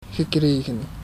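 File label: "gekregen", Dutch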